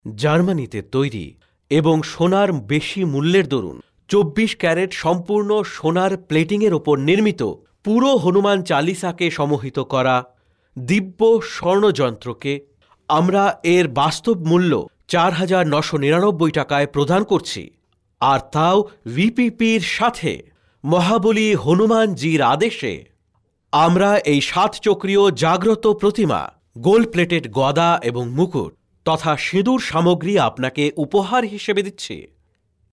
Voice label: male bengali

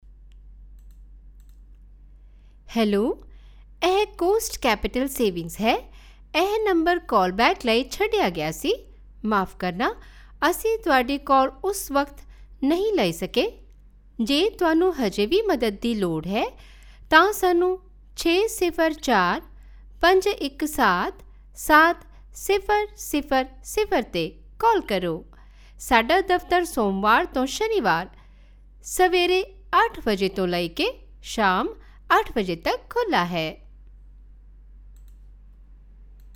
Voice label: punjabi

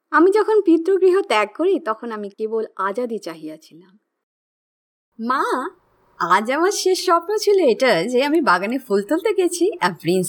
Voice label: Bengali Character Voices

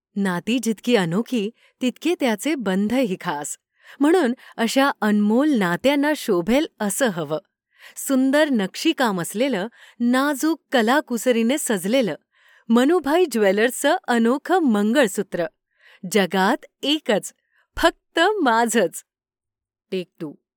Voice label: Marathi

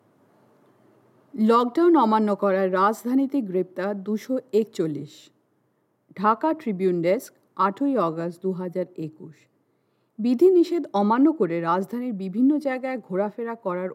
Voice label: Bangladeshi Bengali Narration

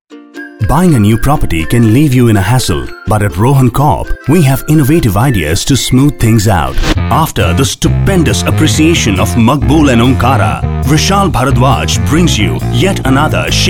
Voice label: TVC Radio English

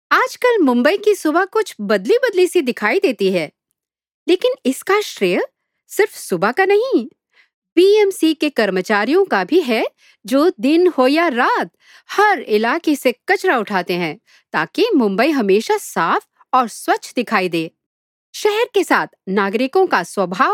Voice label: HINDI NARRATION

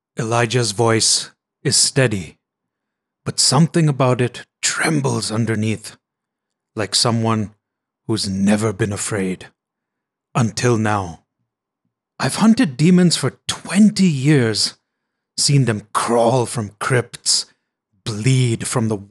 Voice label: Audiobook

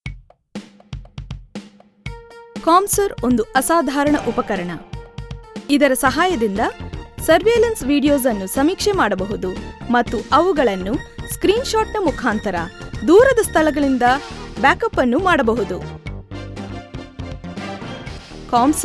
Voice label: corporate kannada; comsur

